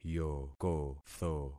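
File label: "yokotho", concatenated syllables; Affixing experiment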